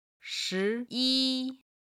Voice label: "shi2 yi1", Chinese; 時間に関する中国語表現